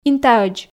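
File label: "интаӧдз", Komi, female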